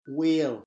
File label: "wheel", English